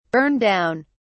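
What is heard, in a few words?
"burn down", British English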